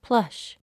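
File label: "plush", English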